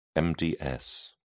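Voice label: "mds", British English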